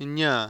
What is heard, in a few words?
"injna", consonants